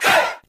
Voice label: "hey", group of men

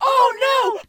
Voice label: "oh no" cartoon

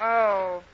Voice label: "oh no", Voice

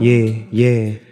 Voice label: "yeah yeah", voice

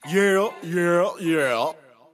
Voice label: yeah yeah yeah cartoon; ooh ahh

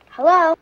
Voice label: "hello", boy; Voice; introductions; hello hi hey

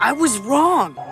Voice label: "i was wrong", Voice; expletives

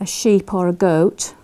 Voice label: "sheep or goat", Voice

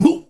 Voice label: "mooh", voice; ooh ahh

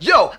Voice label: "yo", Voice

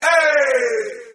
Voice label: "ay", voice

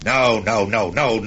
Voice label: no no no no announcer; Voice; expletives

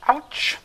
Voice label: ouch sarcasm; Voice

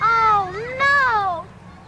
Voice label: "oh no" kid; ooh ahh

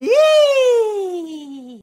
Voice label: yee, cartoon; ooh ahh

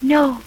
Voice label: "no" female; expletives

